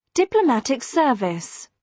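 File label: "diplomatic service", British English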